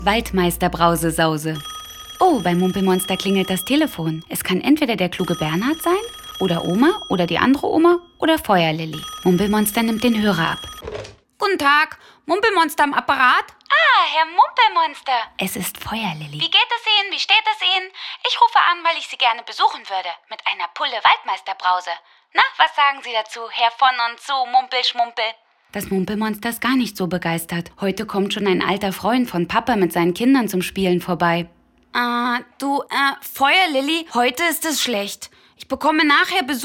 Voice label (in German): Hoerbuch; Mumpelmonster Universal